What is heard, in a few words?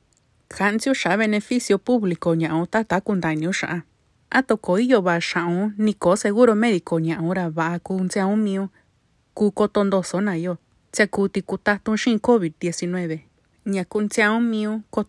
Public Charge Mixteco Audio